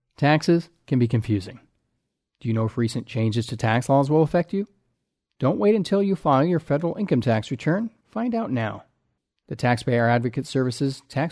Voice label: PSA English No Music; 30 Seconds; Tax Changes